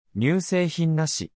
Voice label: eat, japanese voice daily phrases; HiTalki